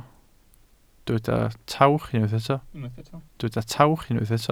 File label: "tawch", Welsh